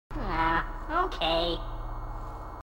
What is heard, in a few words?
grunt dopey; h2dialogue